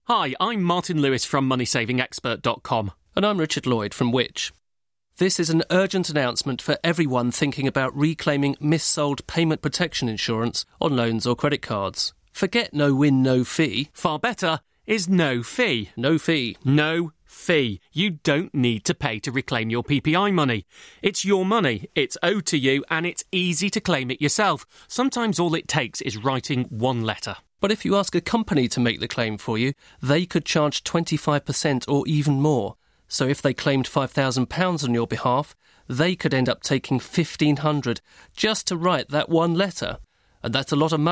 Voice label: radio ad